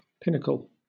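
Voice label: "pinnacle", British English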